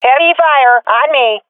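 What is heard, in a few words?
taking fire, swat female; vo; agents; Source Model Packs; Counter Strike Model Pack; CS2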